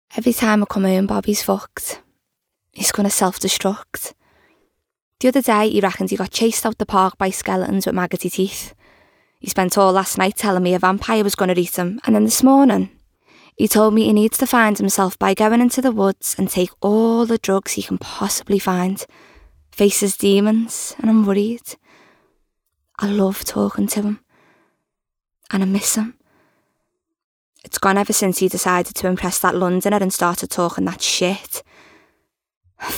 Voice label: Monologue

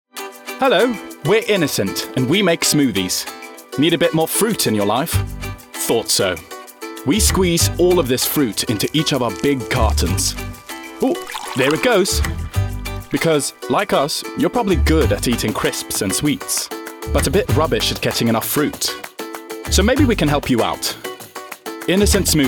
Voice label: Commercial

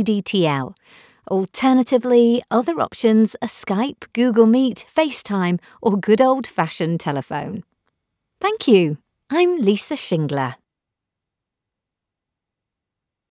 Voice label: Home Studio Sample

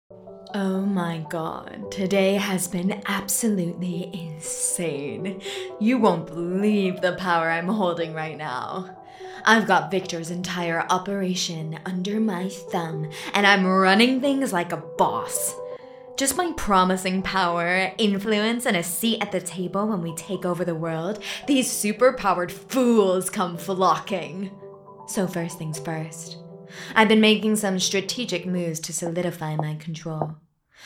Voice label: Manipulative Baddie

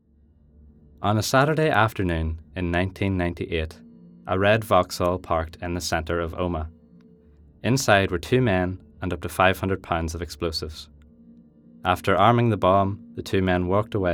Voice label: Documentary

